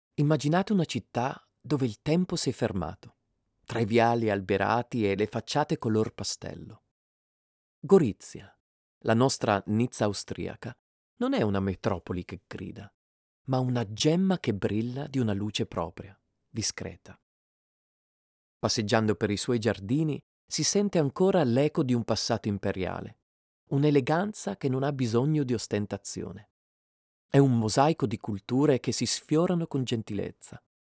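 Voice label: Italian male voice over, narrative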